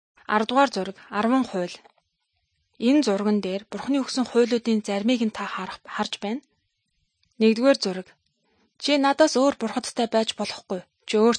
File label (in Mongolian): good news mongolian